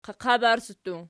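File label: "qaqabarsi" plural, Archi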